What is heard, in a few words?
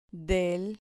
"del", Spanish